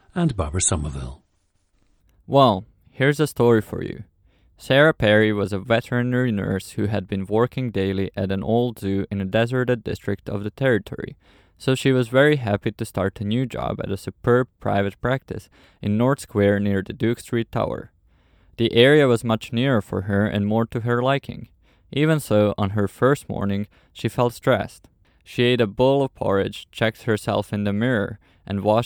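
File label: serbia, dialects of English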